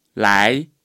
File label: "來", Chinese